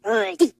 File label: yes, villager